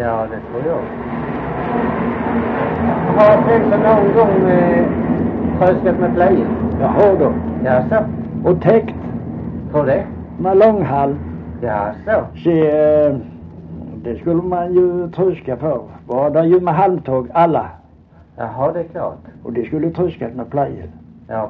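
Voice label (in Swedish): Holm Halland, dialekter; Folkminnen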